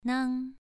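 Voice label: "nang", tone 2, pronunciation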